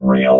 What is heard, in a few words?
"rail", robot